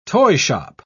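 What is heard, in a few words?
"toyshop", British English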